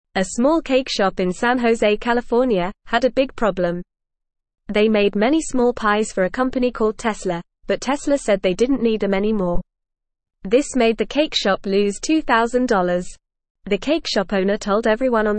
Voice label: English Newsroom Beginner FAST Reading; Cake shop gets help after big problem